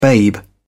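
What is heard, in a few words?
"babe", British English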